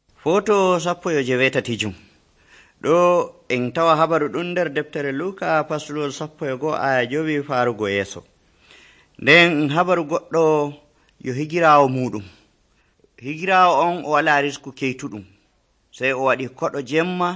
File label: fulfulde, drama; fulfulde adamawa abc nt drama